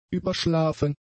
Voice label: "überschlafen", German; adjectives

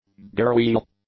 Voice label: "gearwheel", English; nouns

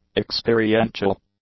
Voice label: "experiential", english; adjectives

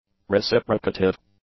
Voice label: "reciprocative", English; adjectives

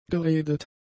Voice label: "geredet", German; adjectives

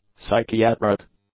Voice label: "psychiatric", english; adjectives

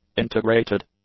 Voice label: "integrated", English; adjectives

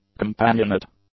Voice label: "companionate", English; adjectives

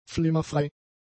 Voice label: "flimmerfrei", German; adjectives

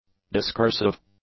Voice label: "discursive", English; adjectives

